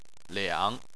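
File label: "liang", Chinese, third tone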